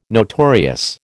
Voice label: "notorious", American